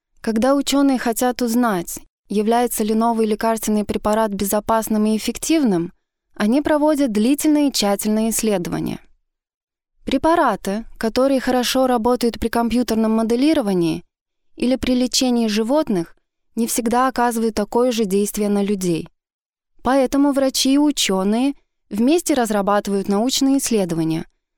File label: female russian voice over narrative